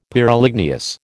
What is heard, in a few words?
"Pyroligneous", American